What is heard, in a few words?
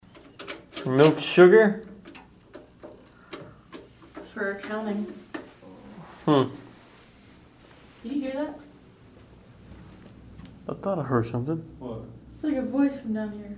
male voice heard kitchen